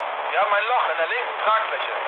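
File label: German left wing mood low, speech; War Thunder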